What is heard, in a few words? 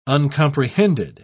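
"uncomprehended", British English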